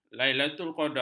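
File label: "lailatulqadar", Malay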